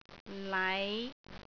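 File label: "lai5", Cantonese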